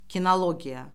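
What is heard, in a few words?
"kinologiya", Azerbaijani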